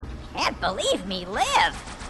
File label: grunt; h2dialogue; grunt crazy